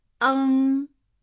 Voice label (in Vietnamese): "eng", tiếng trung; nguyên âm